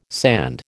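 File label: "sand", American English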